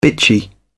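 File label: "bitchy", British English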